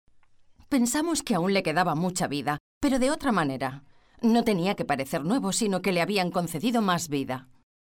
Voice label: spanish f narration, spanish voice demos

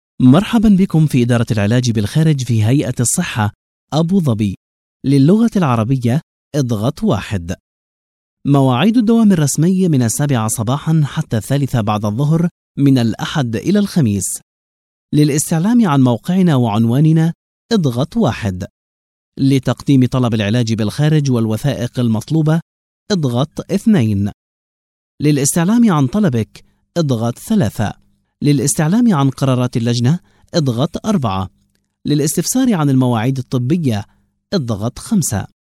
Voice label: arabic m narration; voice demos

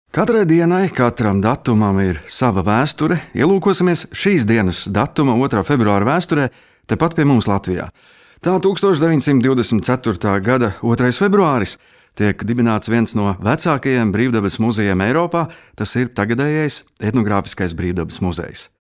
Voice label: latvian m narration, Latvian voice; voice demos

